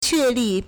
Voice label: "que4 li4", Chinese